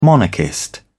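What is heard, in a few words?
"monarchist", British English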